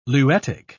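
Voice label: "luetic", British English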